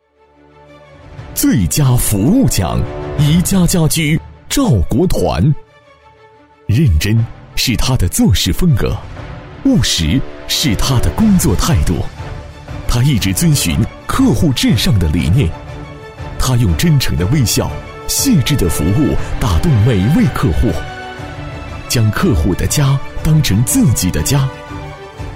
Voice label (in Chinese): 男国 专题 颁奖 服务奖